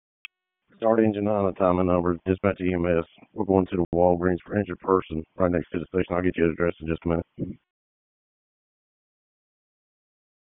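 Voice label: Fire Department HQ Dispatch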